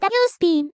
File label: "wspin", vocal; Techmino; miku